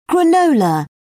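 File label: "granola", English, British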